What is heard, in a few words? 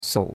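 "sou3", Mandarin Chinese; Cours-de-Chinois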